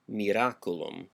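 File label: "miraculum", Latin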